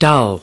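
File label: "dull", English word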